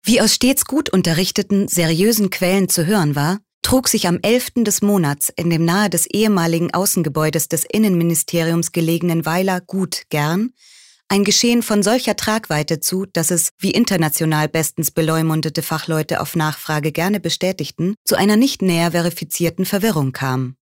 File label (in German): Trocken Neutral